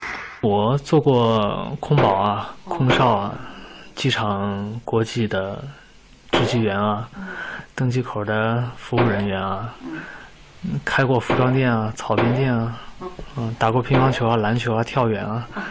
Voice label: interview